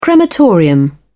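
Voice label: "crematorium", British English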